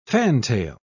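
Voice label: "fantail", English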